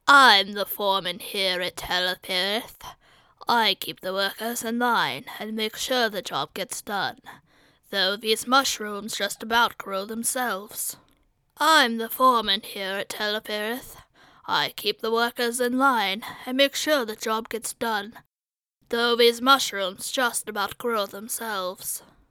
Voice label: orc audition